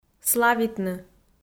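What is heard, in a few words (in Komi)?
"славитны", Komi, female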